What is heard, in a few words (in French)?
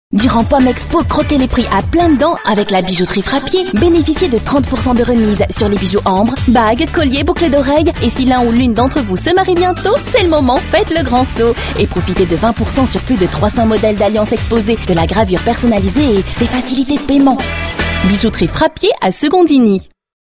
pub bijouterie, voix off; frappier